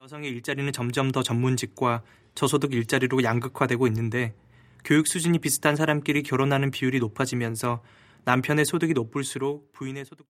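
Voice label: Korean General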